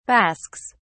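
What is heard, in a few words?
"basques", British English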